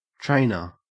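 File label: "trainer", Australian English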